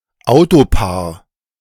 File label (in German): "Autopaar", De